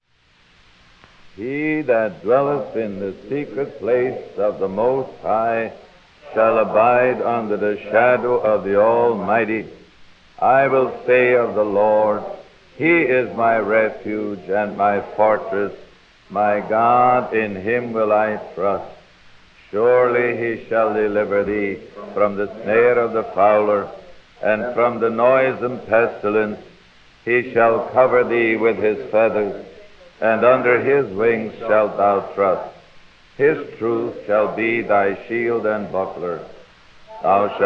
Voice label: Congregational reading, Prayer, Hymn; Close to Thee